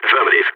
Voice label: affirmative, vo balkan; Counter-Strike Global Offensive